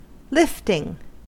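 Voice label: "lifting", American English; pronunciations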